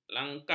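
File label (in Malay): "langkah", Malay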